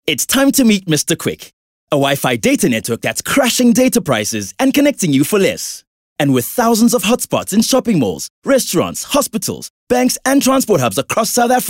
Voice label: English Hardsell; OSM Talent